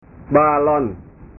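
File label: "balon", Indonesian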